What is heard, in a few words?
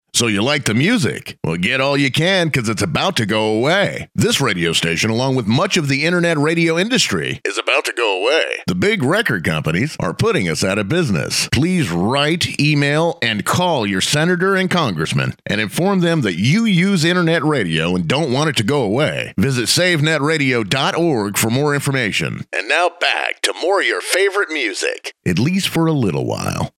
DRY PSA SWEEPER ONE SHORTER; savenetradio